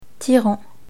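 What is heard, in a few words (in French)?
"tirant", French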